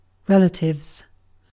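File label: "relatives", British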